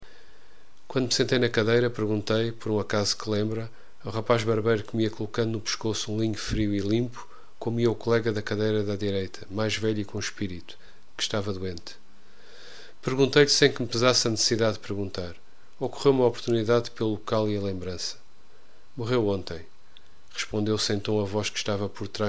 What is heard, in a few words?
saudades voz, portuguese; F Pessoa; Desassossego